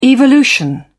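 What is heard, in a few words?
"evolution", British English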